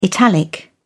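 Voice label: "italic", British English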